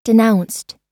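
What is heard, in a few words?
"denounced", US English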